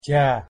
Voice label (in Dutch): "ચા", Gujarati; thee-in-andere-talen